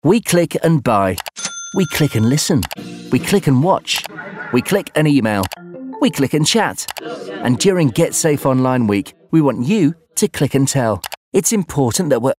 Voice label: Advert; ClickTel RAM